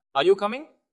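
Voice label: VoiceActing es M2, voice acting, Spanish, male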